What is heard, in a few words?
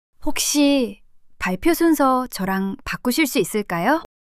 shy; monologue